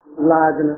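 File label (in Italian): "Laghene" Emilian dialect word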